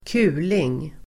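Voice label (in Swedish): "kuling", Swedish; kuling substantiv, gale; Uttal: [²k'u:ling]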